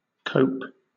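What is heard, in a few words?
"cope", British English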